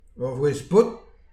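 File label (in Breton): ur vouezh paotr, Breton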